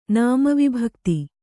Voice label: "ನಾಮವಿಭಕ್ತಿ", kannada